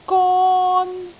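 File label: "gon1", Cantonese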